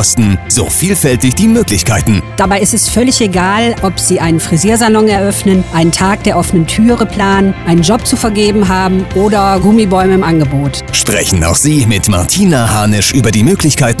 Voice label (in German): Eigenwerbung Radio; Radio Mülheim